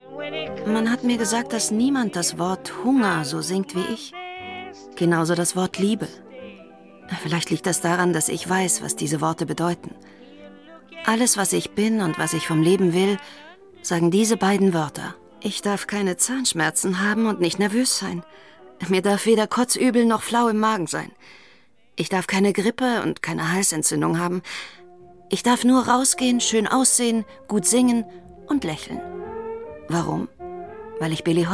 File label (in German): Kommentar, frauen; BR